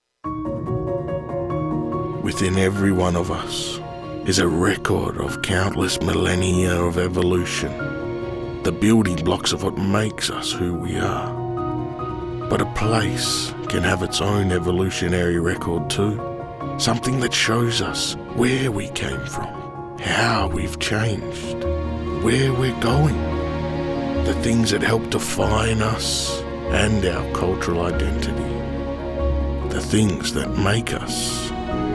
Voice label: Indigenous; MCA Compilation